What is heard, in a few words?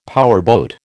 "powerboat", American